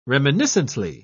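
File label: "reminiscently", British English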